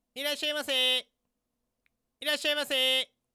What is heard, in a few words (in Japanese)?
ショップ店員の声